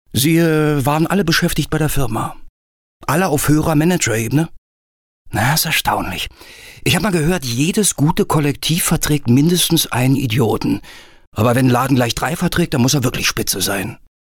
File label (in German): charakter arrogant